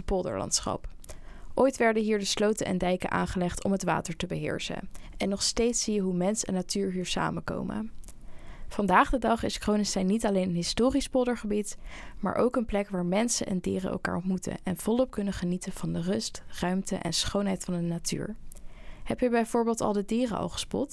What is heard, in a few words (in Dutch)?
Park algemeen voice over; AudioTour Cronesteyn